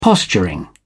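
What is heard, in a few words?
"posturing", British English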